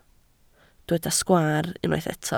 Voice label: "sgwaar", Welsh